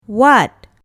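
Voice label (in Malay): "wad", Malay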